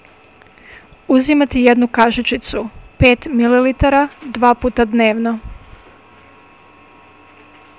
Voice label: Bosnian, Take one teaspoonsful (5mL) twice daily by mouth; Uzimati jednu kasicicu (5 mL) dvaput dnevno.